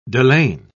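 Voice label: "delaine", British English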